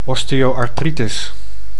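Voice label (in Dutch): "osteoarthritis", Dutch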